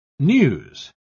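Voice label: "news", English word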